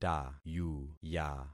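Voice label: "tayuya", concatenated syllables; Affixing experiment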